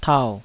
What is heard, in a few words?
"tau3", Taiwanese